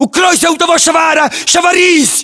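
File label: order cover shed, battlechatter, Russian; localized english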